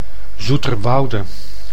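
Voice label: "Zoeterwoude", Dutch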